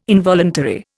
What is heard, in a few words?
"involuntary", Indian